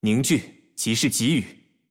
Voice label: 男 语音

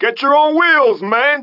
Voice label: 拉丁裔海滩中年男性, 被揍; 罪恶都市

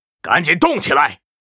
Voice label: Mortar team yes, zh

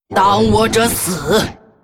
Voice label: Hero female demon hunter morphed yes attack, zh

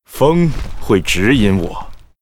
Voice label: Dragonhawk yes, zh; 人类

